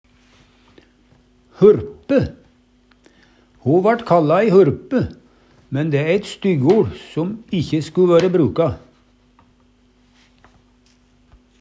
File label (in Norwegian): hurpe, Numedalsmål; Ho vaRt kalle ei hurpe, mæn dæ eit styggeoL som ikkje sku vøre bruka.